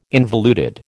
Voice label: "involuted", American

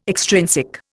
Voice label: "extrinsic", Indian

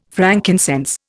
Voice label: "frankincense", Indian

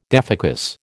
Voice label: "defocus", American

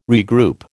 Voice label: "regroup", American

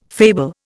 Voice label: "fable", Indian

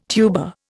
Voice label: "tuba", Indian

